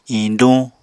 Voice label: "iñduñ", Apatani

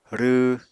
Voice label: "rww", Apatani

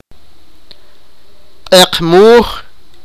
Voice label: sipakapense; bad weather sky

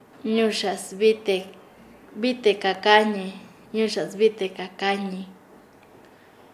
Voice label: Nasa Yuwe; el que mete la cana

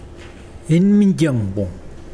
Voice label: Mokilese dawn

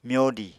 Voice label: "myodi", Apatani

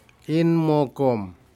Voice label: fish steephead Parrotfish, Aneityum

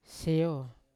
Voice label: "seo" to get up, Yawa

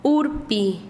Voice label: "paloma", Quechua Chanka

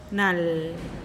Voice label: "nall" cold

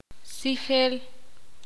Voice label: ixil; oler

